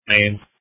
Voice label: Police Tactical Encrypted